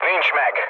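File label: Hungarian bomb failure mood low, speech; War Thunder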